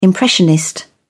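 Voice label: "impressionist", British English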